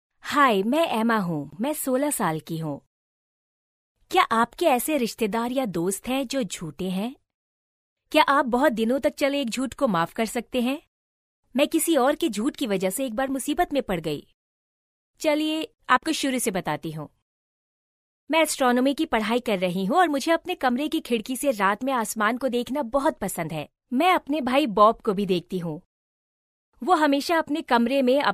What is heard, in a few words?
female urdu voice over narrative